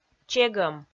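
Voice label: "чегӧм", Komi, female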